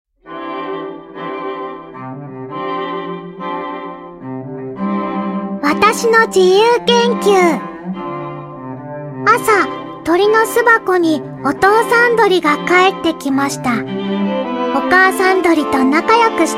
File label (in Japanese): ナレーション